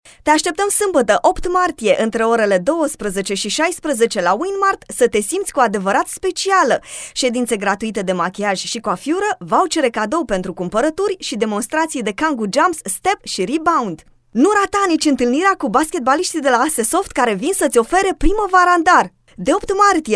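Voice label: romanian female commercial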